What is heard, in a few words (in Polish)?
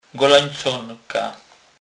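"golenconka", Kurpie dialect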